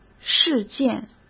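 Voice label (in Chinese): "事件", Mandarin Chinese; hsk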